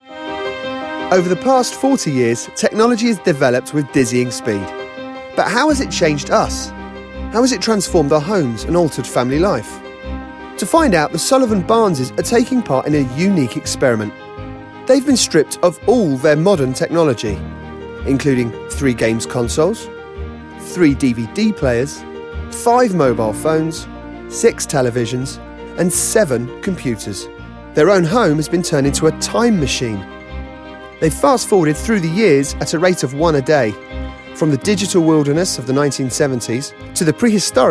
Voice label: Narration